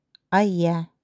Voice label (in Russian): "Хорошо", удыгейский; Ая; транскрипция [ая]